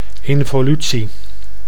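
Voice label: "involutie", Dutch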